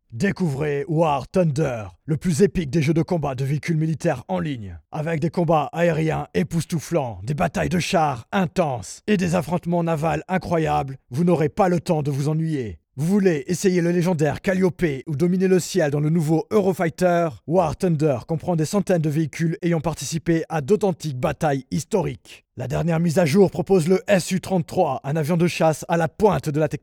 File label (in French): publicite; War Thunder